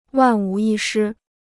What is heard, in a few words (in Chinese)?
"万无一失", Chinese